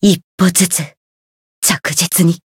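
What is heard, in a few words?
Voice Japanese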